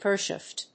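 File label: "gearshift", English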